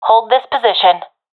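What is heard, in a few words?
request hold, professional female; vo; agents; Source Model Packs; Counter Strike Model Pack; CS2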